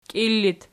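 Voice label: "kiil" locative, Archi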